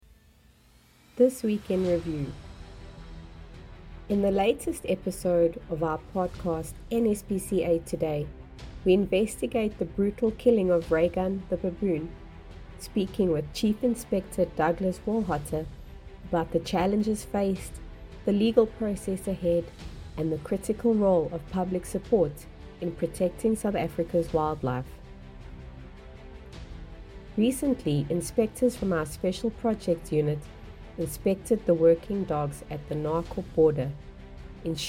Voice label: voiceover; Week in Review: 31 March - 06 April 2025; NSPCA